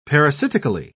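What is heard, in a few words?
"parasitically", British English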